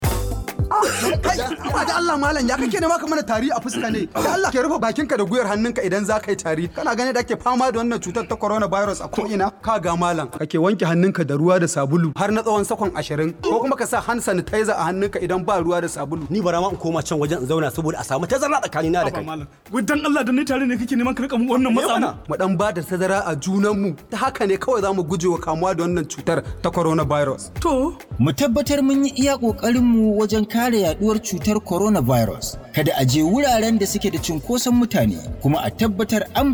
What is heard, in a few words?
Restaurant Hausa